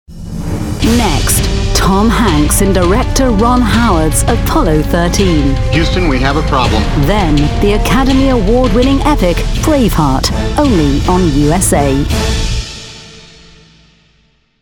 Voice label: TV PROMO; USA TV